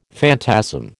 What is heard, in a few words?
"phantasm", American